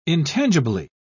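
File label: "intangibly", British English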